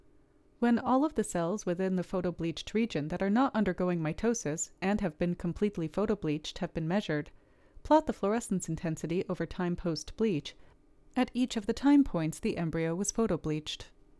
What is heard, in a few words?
Voiceover